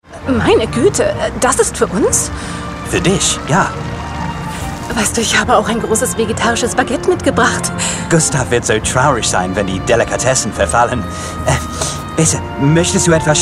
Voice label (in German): deutsch mit britischem akzent die maennliche stimme